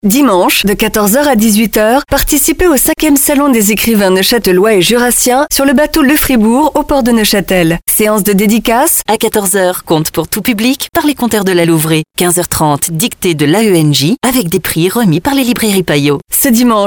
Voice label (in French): PROMO